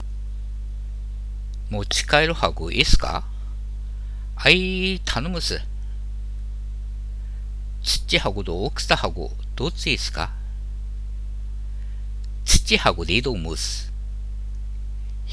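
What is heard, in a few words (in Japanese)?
ハナメと店員, 秋田弁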